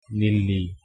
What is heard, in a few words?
stop, Kannada